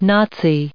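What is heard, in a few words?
"Nazis", us English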